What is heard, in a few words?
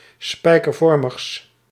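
"spijkervormigs", Dutch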